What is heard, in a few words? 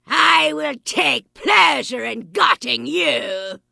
Vrykul Female Magic User Pissed